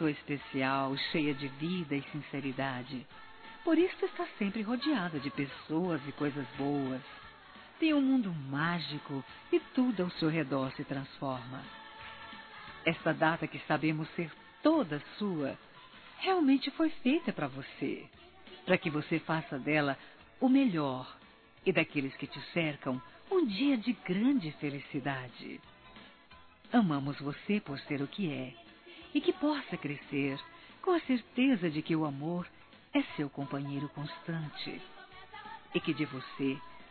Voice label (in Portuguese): Criança Geral Feminino; Aniversários; Aniv Familiar